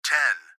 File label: Gps Voice, Male